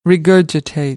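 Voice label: "regurgitate", American English; AmericanBritish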